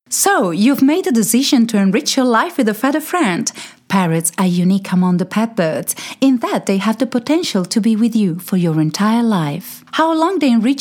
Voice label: English